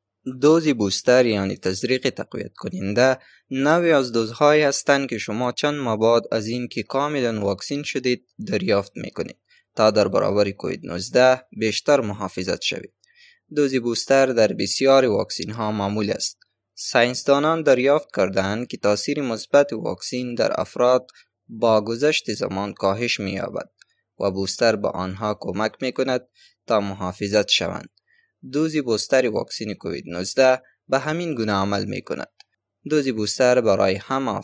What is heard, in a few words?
Booster PSA DARI